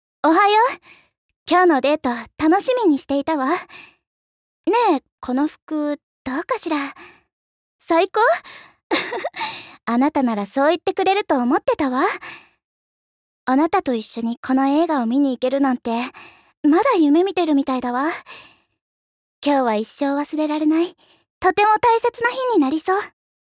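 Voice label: dreaming maiden, Japanese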